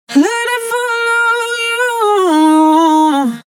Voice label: synth voice; 合成器化人声; OVox; Talk Vox; Waves